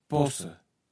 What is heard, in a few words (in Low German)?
"Posse", Platt